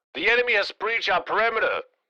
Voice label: Military radio voice the enemy has breached our perimeter